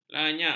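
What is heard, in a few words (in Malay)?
"lanyak", Malay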